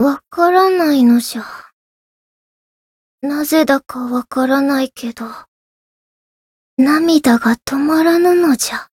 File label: story, jp; leviachan